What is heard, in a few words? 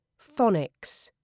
"phonics", uk